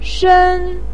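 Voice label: "shen", Chinese pinyin, first tone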